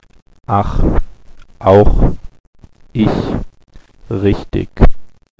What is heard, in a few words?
"ach", German